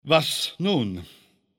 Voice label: Neutral Hello; Speech; Civ5; Bismark